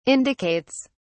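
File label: "indicates", British English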